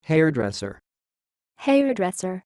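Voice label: "Hairdresser" American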